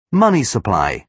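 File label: "money supply", British English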